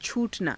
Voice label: "chuu_tanaa", Hindi